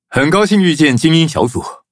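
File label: victory, Traditional Chinese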